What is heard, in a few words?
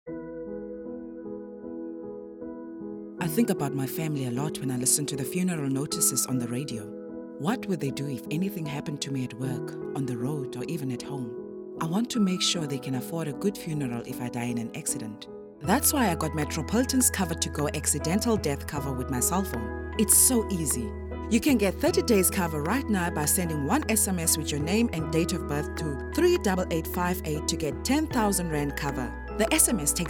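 english South African female commercial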